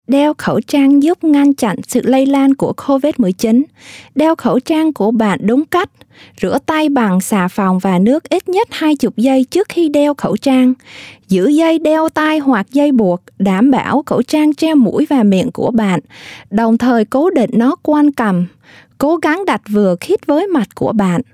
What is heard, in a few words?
PSA Vietnamese How to Wear a Mask; CDC Covid; coronavirus 2019 ncov